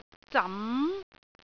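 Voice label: "zam2", Cantonese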